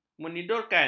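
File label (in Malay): "menidurkan", Malay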